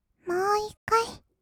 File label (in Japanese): もーいっかい, kawaii voice; 音ゲー